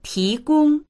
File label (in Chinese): "ti2gong1", voices; 提供; 提供（する）